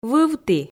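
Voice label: "вывті", Komi, female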